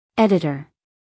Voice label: "editor", us female; everyone-can-use-english